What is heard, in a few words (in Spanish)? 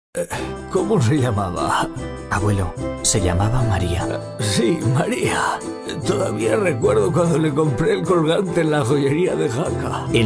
Cuña de radio; Premio a la MEJOR CUÑA DE RADIO CREATIVA